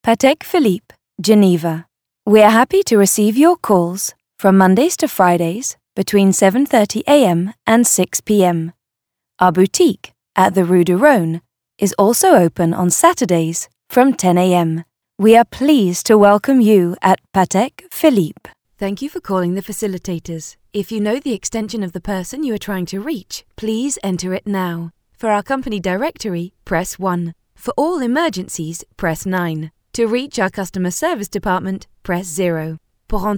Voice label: IVR